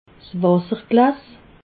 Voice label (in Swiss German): "wasserglas", alsace